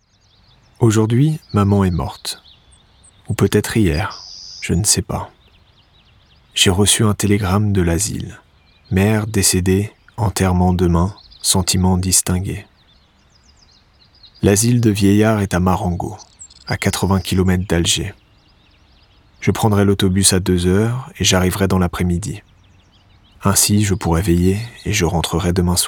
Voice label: male, French, narration